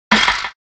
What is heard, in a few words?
grunt